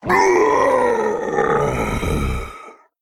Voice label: Male Worgen Death

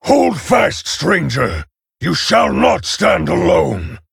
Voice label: Male Orc Ogre

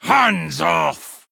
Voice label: Male Dwarf Attack

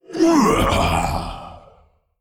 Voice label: Male Old God Creature Death

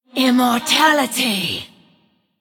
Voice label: Female Blood Elf Attack

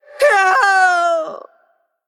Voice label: Female Human Ghost Death

